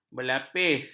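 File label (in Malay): "berlapis", Malay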